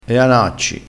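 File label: "anacci", Italian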